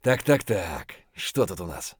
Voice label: mutter, voice; Escape from Tarkov